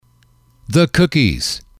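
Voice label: the cookies, English, male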